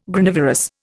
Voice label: "Granivorous", Indian